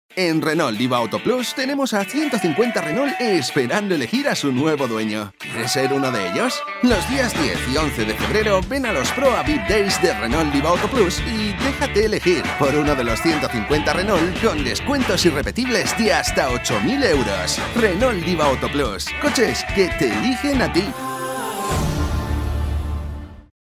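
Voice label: comercial, Spanish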